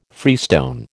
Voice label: "freestone", American